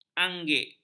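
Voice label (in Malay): "anggit", Malay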